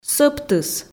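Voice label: "сӧптыс", Komi, female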